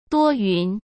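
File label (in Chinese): "多云", Mandarin; hsk; chinese flashcards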